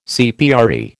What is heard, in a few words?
"CPRE", American